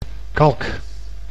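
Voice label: "kalk", Dutch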